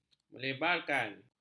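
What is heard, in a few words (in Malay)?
"melebarkan", Malay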